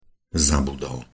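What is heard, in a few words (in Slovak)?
"zabudol", Slovak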